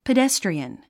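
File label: "pedestrian", English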